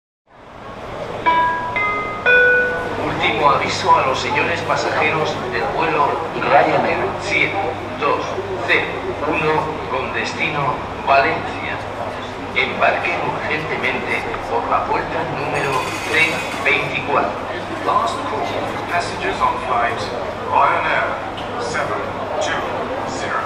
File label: ultimo avisto, Flughafen Ansagen